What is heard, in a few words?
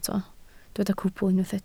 "cwbl", Welsh